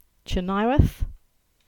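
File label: English; Chynoweth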